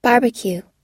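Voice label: "barbecue", English pronunciation; long u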